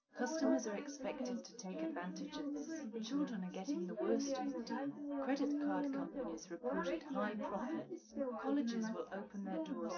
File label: female, live rec, 250ms, 5cm